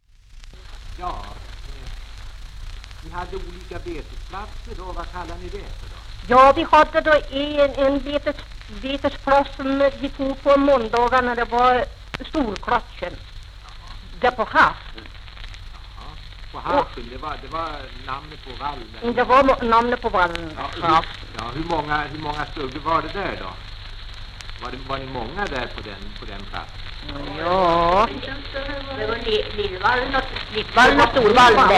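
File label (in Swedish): Järvsö Hälsingland, dialekter, svenska sagor; Folkminnen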